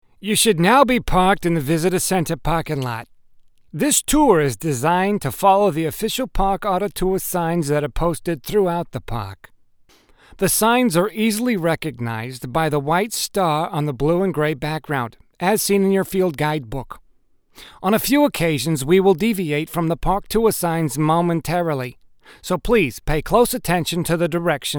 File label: voiceovers; Narration Guide 2 Spoof (male)